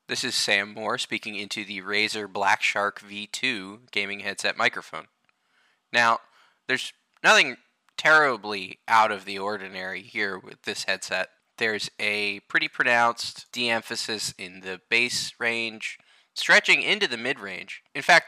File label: Blackshark V2 mic sample